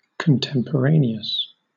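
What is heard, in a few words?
"contemporaneous", British English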